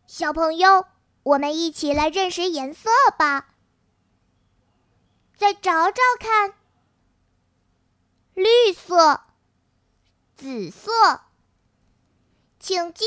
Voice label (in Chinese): 动画 童声 男童